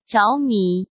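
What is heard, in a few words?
"着迷", Mandarin Chinese; chinese flashcards; hsk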